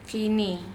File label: "phini", Andamanese; fish